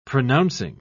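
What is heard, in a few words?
"pronouncing", British English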